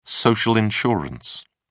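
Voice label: "social insurance", British English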